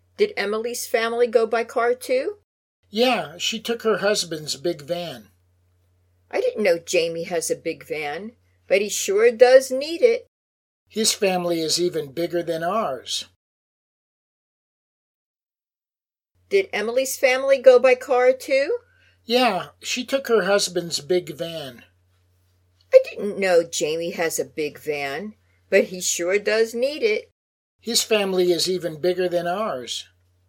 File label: Natural Dialog